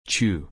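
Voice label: "chew", English pronunciation; long u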